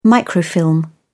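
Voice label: "microfilm", British English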